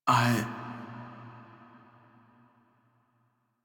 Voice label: Operator Voice; Warframe; Apostasy Teaser; Chamber; en